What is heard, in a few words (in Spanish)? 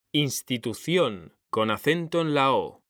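"institución", Spanish, male; mekanta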